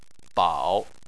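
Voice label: "bao" Chinese, third tone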